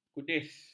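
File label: "kudis", Malay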